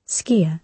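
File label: "skier", British English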